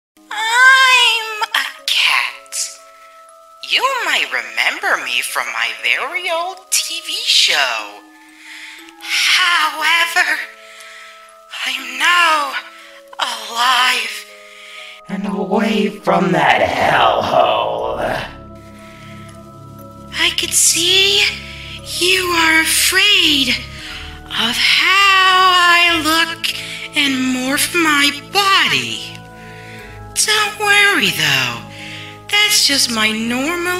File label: golos cartoon cat